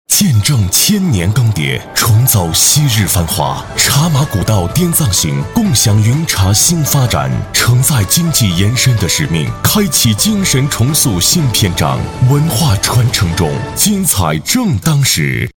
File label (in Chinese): 男 广告; 和谊基金